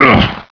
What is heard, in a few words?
pain, hgrunt; svencoop; cracklife